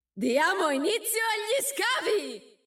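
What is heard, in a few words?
Italian; 探奇（守望先锋2）; 终极技能; Diamo inizio agli scavi!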